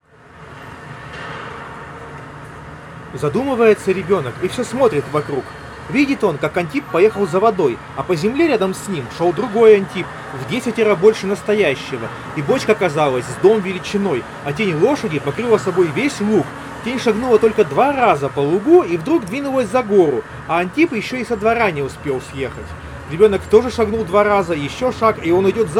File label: Recorder noise; earfun clip 2